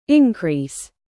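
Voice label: "live", verb, British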